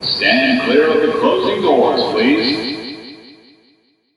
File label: Stand Clear Echo